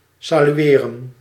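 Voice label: "salueren", Dutch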